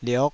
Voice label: "liok5", Taiwanese syllable